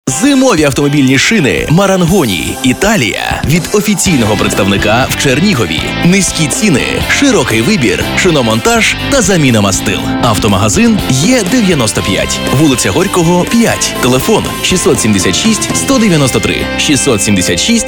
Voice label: ukraine male